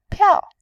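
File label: "piao", Mandarin, tone 4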